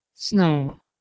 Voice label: "snaiwa", Proto Germanic